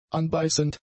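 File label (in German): "anbeißend", German; adjectives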